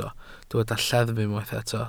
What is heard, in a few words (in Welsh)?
"lleddf", Welsh